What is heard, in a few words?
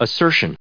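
"assertion", US English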